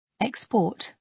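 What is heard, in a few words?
"export", British English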